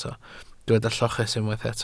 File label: "lloches", Welsh